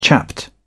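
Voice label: "chapped", British English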